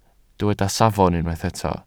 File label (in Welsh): "safon", Welsh